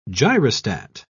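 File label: "gyrostat", British English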